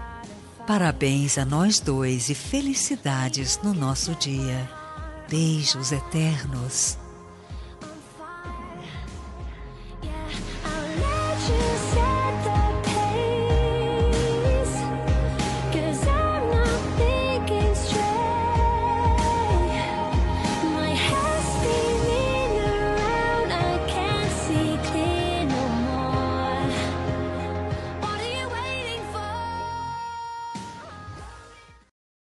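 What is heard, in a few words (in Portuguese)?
dia dos namorados namorado female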